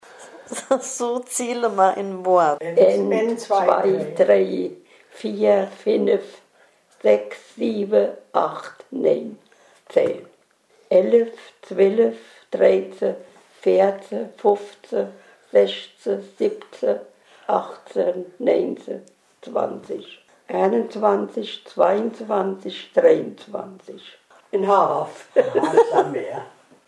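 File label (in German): zahlen, Hunsrück; Wohnroth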